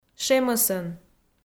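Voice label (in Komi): "шемӧсӧн", Komi, female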